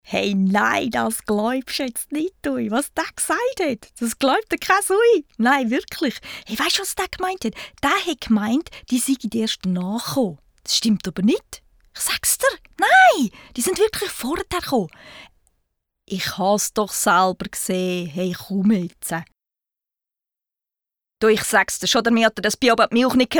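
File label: german swiss female character; voice demos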